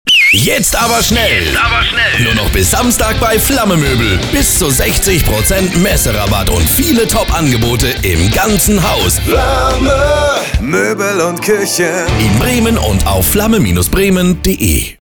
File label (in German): Spot; Flamme Bremen